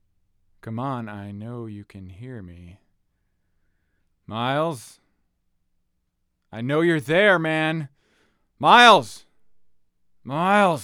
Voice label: Phone Call; Broken Road ADR